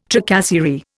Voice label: "tracasserie", Indian

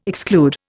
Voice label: "exclude", Indian

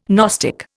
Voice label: "gnostic", Indian

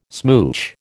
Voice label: "smooch", American

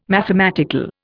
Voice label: "mathematical", Indian

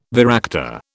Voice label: "varactor", British

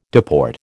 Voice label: "deport", American

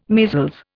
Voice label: "measles", Indian

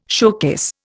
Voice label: "showcase", Indian

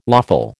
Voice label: "lawful", American

